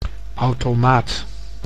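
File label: "automaat", Dutch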